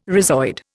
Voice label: "rhizoid", Indian